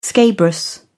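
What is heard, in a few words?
"scabrous", British English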